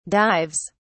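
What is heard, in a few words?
"dives", British English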